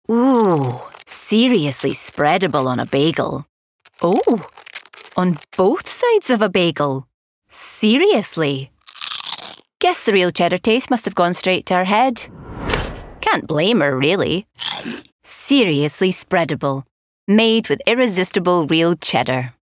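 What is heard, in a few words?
COMMERCIAL, Female; SERIOUSLY SPREAD COMMERCIAL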